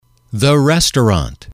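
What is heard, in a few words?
"the restaurant", English, male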